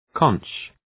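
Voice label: "conch", English; {kɒŋk}; Ουσιαστικό; κογχύλιο; βούκινο; κοχύλι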